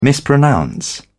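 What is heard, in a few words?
"mispronounce", British English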